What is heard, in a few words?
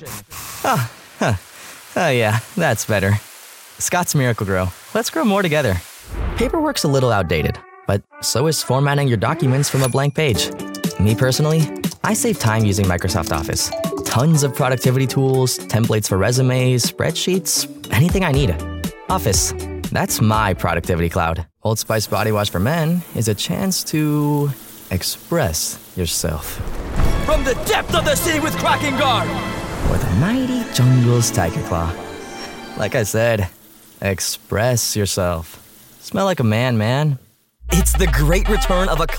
Commercial; bilingual Latino male voice actor